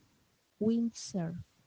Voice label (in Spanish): "windsurf", espanol